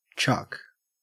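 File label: "chuck", English, Australian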